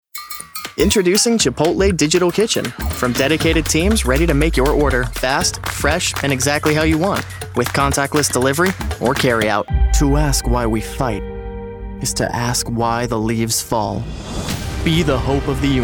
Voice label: Commercial Demo